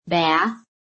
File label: "bath", american; PHONETIC